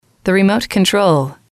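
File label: "the remote control", English, female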